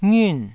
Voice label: "ngiun4", Taiwanese syllable

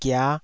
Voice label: "gia5", Taiwanese syllable

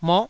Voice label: "moh9", taiwanese

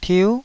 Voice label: "tiu9", taiwanese Syllables

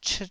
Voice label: "chit1", taiwanese

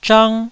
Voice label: "zhang1", taiwanese

